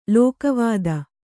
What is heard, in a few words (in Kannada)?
"ಲೋಕವಾದ", Kannada; lōka vāda; noun; = ಲೋಕವಾರ್ತೆ.